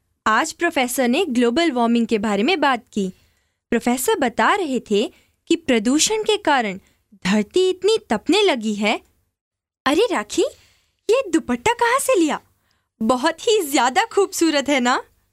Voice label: radio